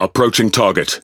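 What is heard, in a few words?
Speech Pilots Bomber Engage, British English; World Of Warships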